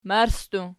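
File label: "marssi", plural, Archi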